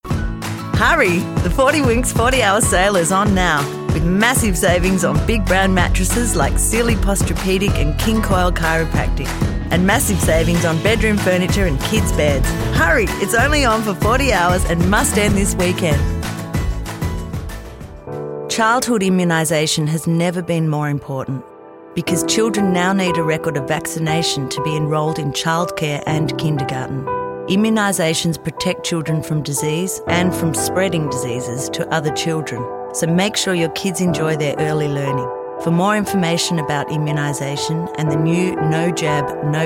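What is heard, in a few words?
English Australian female; accent of australia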